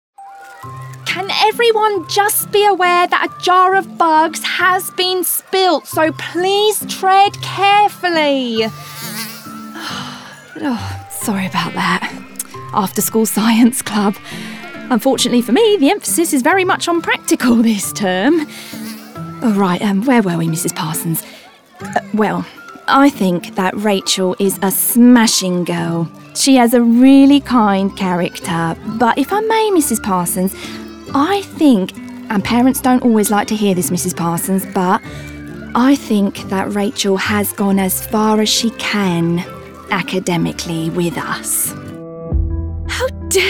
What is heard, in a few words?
Radio Drama Reel